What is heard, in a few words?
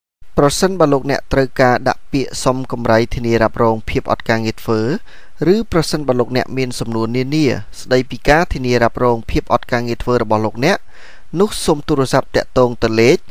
cambodian